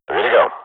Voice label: radio bot kill count, swat